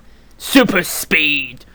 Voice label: pu super speed voice; KevinBlast; marble